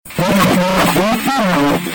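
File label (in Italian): "voci", Italian; voci n'ha qui chiare!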